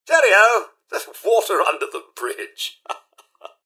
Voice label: generic robot accept yield; mrhandy; npc